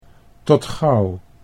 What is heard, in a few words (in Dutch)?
"tot gauw", Dutch